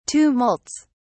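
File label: "tumults", British English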